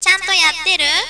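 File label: "chanto" Japanese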